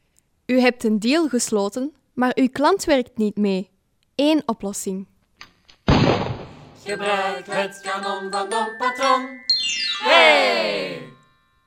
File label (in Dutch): Reklamespotje